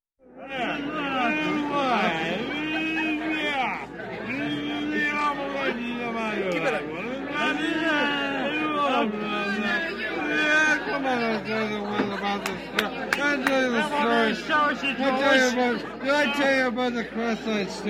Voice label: Elderly People talking